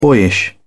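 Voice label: "boyish", English, British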